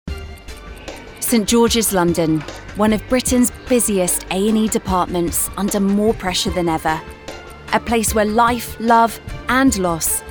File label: Documentary